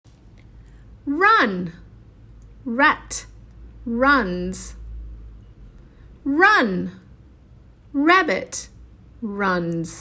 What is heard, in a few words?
r chant, english phonics; sw phonics